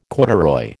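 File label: "corduroy", American English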